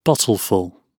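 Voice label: "bottleful", British English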